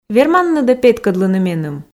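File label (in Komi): верманныд-ӧ петкӧдлыны меным, Komi, female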